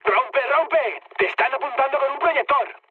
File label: searchlite, mood med, speech; War Thunder